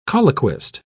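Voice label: "colloquist", British English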